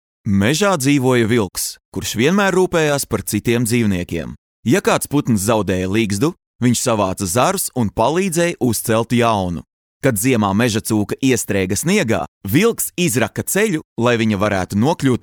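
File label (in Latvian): Pasaka Latvian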